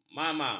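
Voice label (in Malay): "mama", Malay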